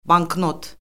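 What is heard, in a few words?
"banknot", Azerbaijani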